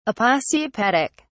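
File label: "aposiopetic", British English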